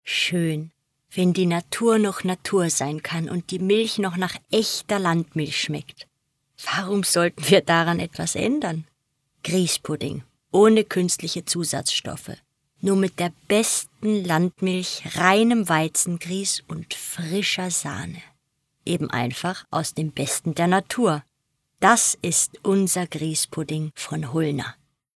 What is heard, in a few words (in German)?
Werbung Grießpudding badisch; LOFT VOICES